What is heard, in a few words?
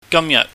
"gamyaht", Cantonese